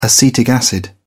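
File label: "acetic acid", British English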